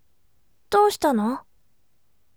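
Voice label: "dousitano", bright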